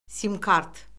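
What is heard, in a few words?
"sim-kart", Azerbaijani; Orfoqrafiya Lüğəti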